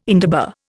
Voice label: "indaba", Indian

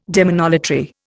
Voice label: "demonolatry", Indian

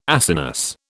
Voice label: "Acinus", British

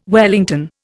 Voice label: "wellington", Indian

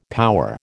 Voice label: "power", American

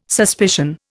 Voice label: "suspicion", Indian

